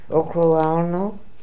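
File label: "Okrualno", pronunciations; Fourth Way; Beelzebub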